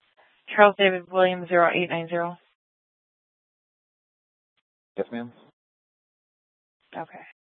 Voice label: Police Dispatch; TOPAZ Regional Wireless Cooperative (TRWC) - Mesa Simulcast; Mesa Police Department; 3 Red Mountain Dispatch